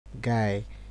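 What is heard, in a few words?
"guy", Nigerian Pidgin, male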